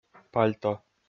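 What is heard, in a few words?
"пальто", Komi, male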